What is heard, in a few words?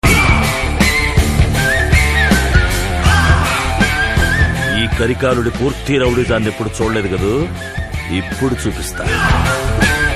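whistle with dialogue tone; Kaala BG; Rajini; Bollywood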